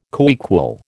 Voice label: "coequal", American

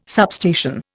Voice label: "substation", Indian

